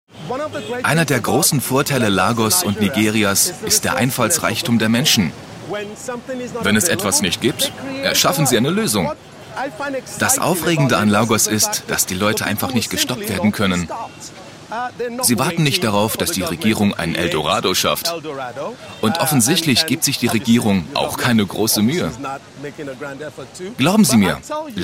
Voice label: Kommentar Dynamisch

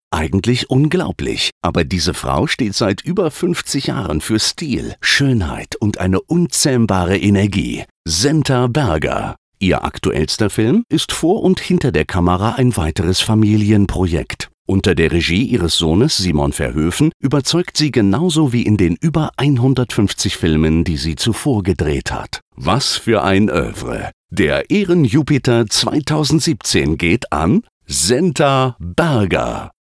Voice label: Lebendig

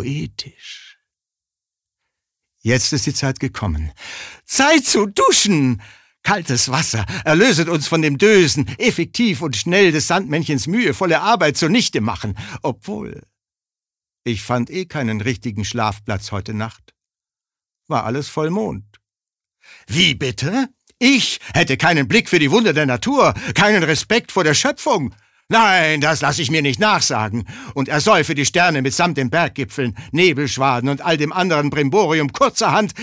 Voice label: Schauspiel Variabel

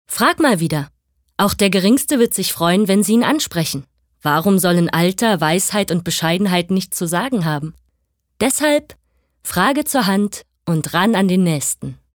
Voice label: Werbung Neutral

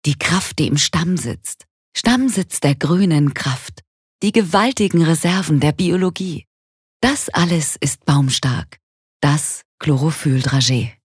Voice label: Werbung Sanft

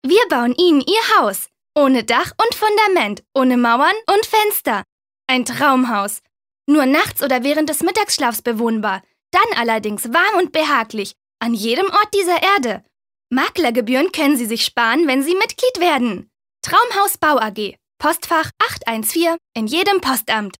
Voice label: Werbung Dynamisch

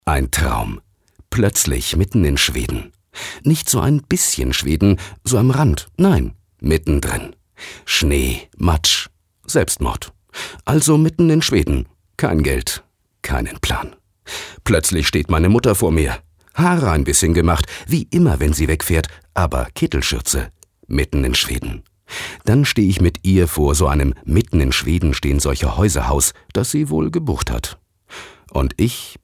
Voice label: Schauspiel, Freundlich

